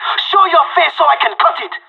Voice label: on a roll brag, pirate; Counter Strike Model Pack; CS:GO